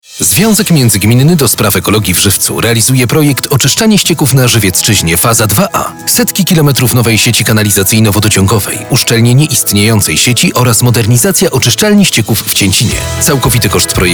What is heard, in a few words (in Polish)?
Spot radiowy